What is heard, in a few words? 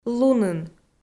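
"лунын", Komi, female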